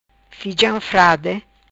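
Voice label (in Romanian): "Fijam Frade", Macanese Patuá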